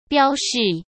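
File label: "标示", Mandarin Chinese; hsk; chinese-flashcards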